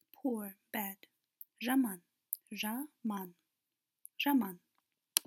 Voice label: poor bad, kazakh